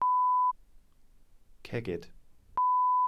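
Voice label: "cegid", Welsh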